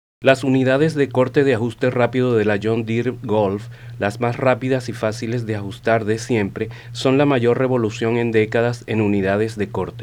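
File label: Latin American Spanish Male 50, Foreign Voice Talent; Spanish - Latin American Male (50 y.o.)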